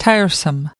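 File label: "tiresome", US English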